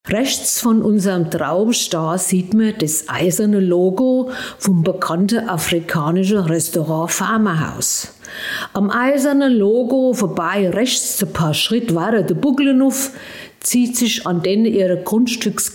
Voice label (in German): Mundart; Hochzeitswingert